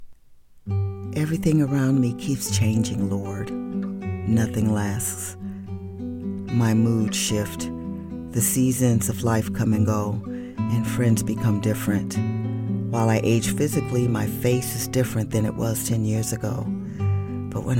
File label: inspirational